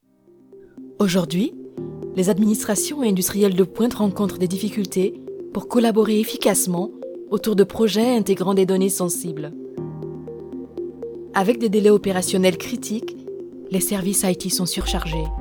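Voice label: Commercial Upbeat; Thales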